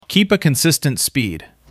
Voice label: Male Maintain Speed